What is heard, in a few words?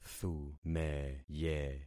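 "thumeye", concatenated syllables; Affixing experiment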